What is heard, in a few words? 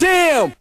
"damn", expletives